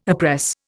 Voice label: "oppress", Indian